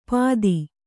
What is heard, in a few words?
"ಪಾದಿ", Kannada